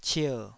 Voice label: "cier3", Taiwanese